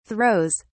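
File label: "throws", British English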